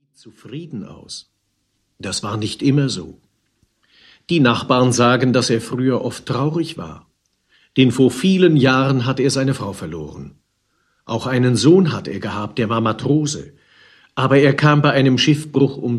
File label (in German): hoerspiel; vater martin